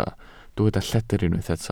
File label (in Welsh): "lledr", Welsh